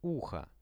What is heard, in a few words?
"uho", Russian; ухо